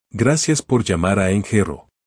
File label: welcome, phone; mexican